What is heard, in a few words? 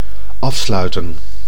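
"afsluiten", Dutch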